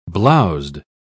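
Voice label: "blowzed", British English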